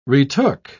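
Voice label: "retook", British English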